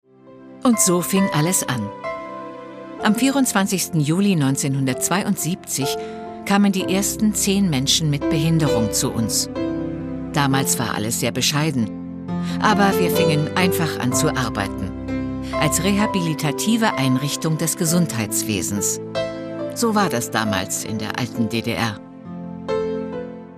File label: over-voice Imagefilm